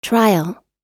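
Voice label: "trial", English; oxford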